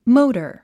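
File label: "motor", English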